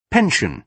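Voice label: "pension", British English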